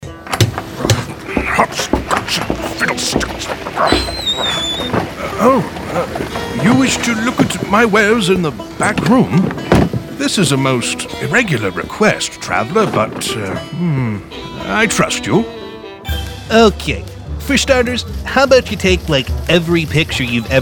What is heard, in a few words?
Animation